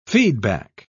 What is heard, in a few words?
"feedback", pronounce